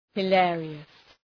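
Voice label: "hilarious", English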